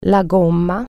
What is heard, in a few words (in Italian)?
"tire", Italian